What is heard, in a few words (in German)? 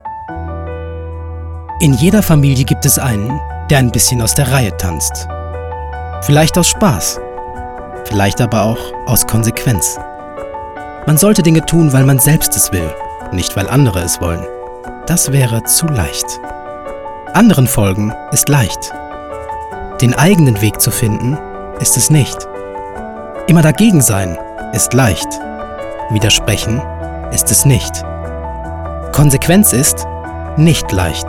Emo Audio